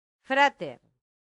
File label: "frater", latin; santillana